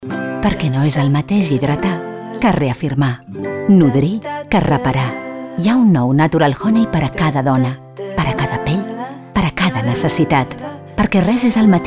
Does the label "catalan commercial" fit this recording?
Yes